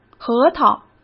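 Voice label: "核桃", Mandarin Chinese; chinese-flashcards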